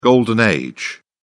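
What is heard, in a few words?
"golden age", British English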